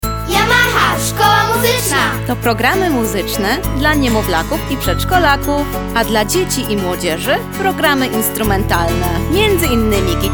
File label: RADIO SPOT